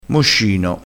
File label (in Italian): "moscino", crespinese